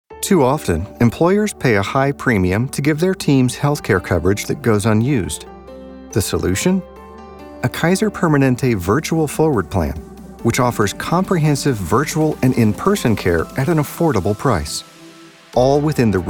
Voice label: voiceover corporate relaxed